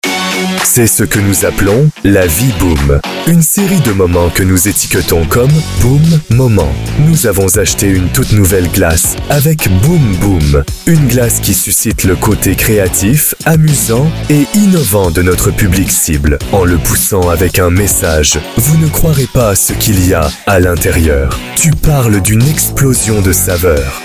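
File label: French, male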